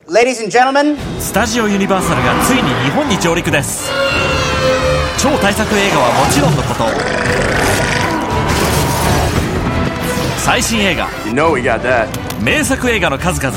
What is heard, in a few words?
Japanese, Japanese Male; NBC Studio Universal